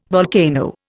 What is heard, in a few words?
"volcano", Indian